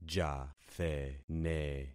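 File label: "jathene", concatenated syllables; Affixing experiment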